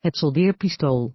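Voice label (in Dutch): "het soldeer-pistool", Dutch; Natuur samenvatting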